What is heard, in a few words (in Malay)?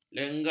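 "lenga", Malay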